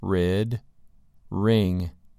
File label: "rid" "ring"